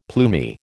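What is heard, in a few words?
"plumy", American English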